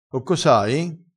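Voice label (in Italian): "raffrescata", vocabolario dialettale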